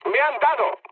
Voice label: aircraft destroyed mood med; War Thunder

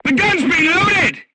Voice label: voice message charged, crew tanks vietnamese

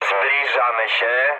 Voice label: near waypoint mood high, speech pol; War Thunder

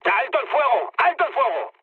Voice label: player friendly fire, mood med, speech

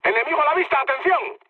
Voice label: enemy detected, mood med, speech; War Thunder

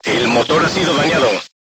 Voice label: voice message engine damaged, crew tanks spanish

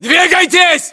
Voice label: order move generic, ru

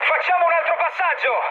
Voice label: bomb failure mood high, speech it; War Thunder

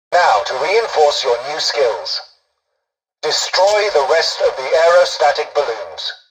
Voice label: tutorial dialogue, english; War Thunder

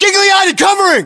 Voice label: response covering, battlechatter us

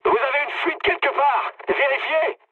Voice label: tank damaged mood med, speech fr; War Thunder

